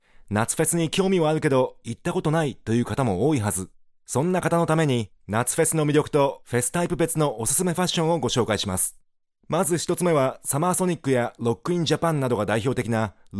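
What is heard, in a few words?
Japanese, Casual; GoLocalise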